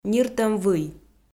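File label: "ниртӧм вый", Komi-Zyrian, female; kpv audio dict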